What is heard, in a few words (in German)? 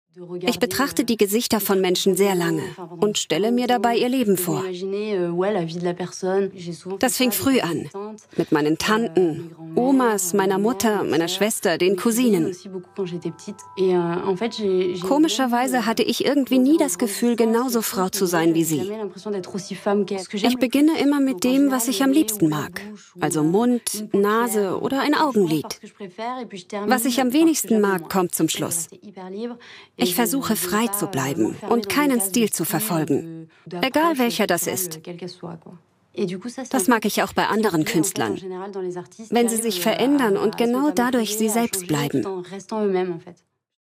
voiceover Doku freundlich dezent; stereo; arte